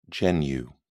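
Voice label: "genu", British English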